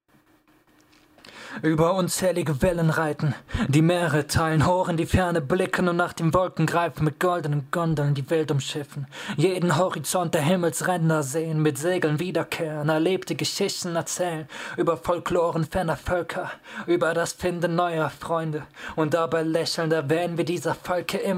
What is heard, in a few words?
test rap comp sm7b